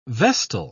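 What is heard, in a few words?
"vestal", British English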